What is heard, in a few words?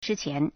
"zhi1qian2", Chinese